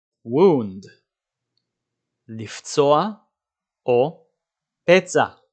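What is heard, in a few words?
"wound" English, "לפצוע פצע" Hebrew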